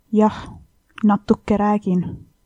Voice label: yes a little, estonian; Jah, natuke räägin

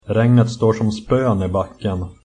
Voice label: rain, swedish

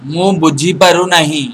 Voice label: no understand, odia

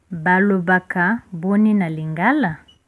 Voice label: how to say, lingala

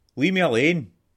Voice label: leave me alone scots

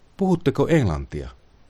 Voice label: do you speak english, finnish

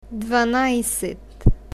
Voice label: "dvanajset", bulgarian numbers

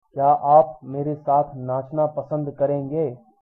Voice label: dance hindi

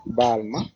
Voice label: sorry, wolof

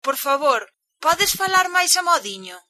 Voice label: slowly, galician

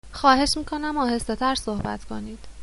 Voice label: slowly, persian

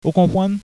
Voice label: do you understand, haitian creole

